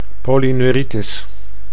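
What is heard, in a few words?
"polyneuritis", Dutch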